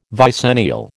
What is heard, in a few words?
"vicennial", American